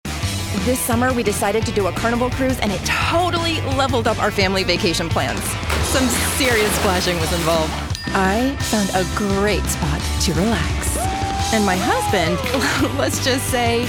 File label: Commercial